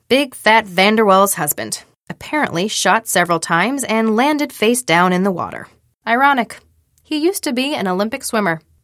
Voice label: lao samples